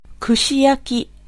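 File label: Japanese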